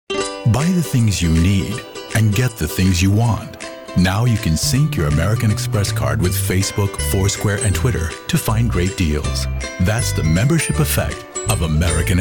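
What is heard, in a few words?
US, commercial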